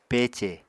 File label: "pece", Apatani